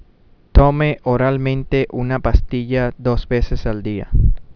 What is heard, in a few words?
Spanish, Take one tablet twice daily by mouth; Tome oralmente una pastilla dos veces al dia.